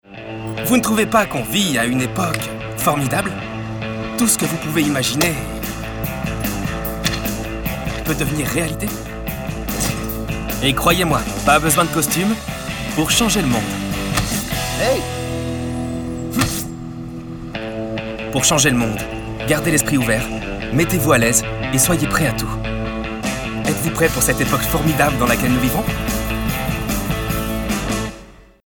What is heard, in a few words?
male french comercial; imagine; ideasonora